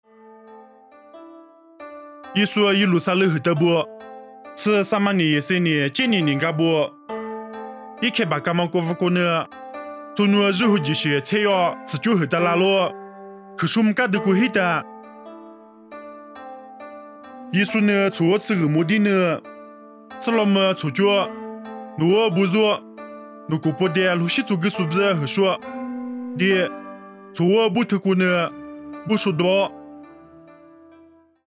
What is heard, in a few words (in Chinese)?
凉山彝语; 以色列撒玛利亚